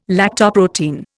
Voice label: "lactoprotein", Indian

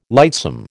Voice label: "lightsome", American

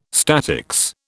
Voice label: "statics", British

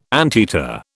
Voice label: "anteater", British

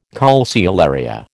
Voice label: "calceolaria", American

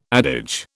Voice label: "adage", British

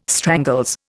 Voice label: "strangles", Indian

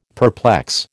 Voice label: "perplex", American

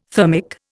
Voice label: "thermic", Indian

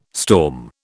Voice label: "storm", British

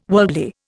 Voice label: "worldly", Indian

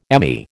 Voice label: "Emmy", American

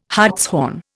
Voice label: "hartshorn", Indian

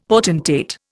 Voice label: "potentate", Indian; Dictionary

